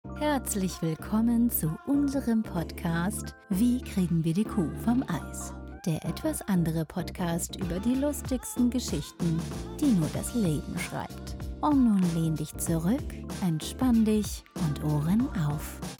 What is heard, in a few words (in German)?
Podcast Kuh Voice Over Professionell